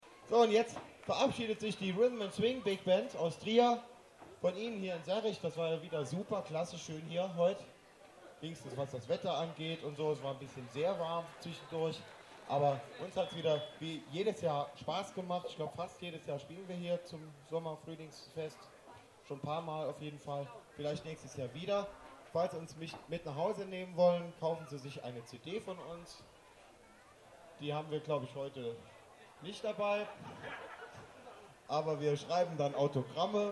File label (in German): Ansage; Konzert Sommerfest (Hofgut, Serrig)